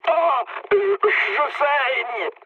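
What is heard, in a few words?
damaged pilot, mood low, French; War Thunder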